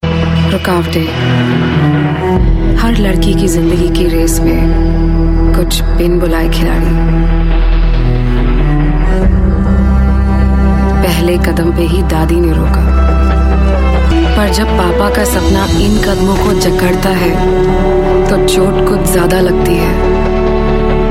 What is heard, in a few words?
Hindi Showreel